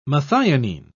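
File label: "methionine", English, British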